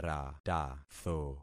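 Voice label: "ratatho", concatenated syllables; Affixing experiment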